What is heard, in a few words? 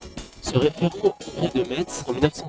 dual voice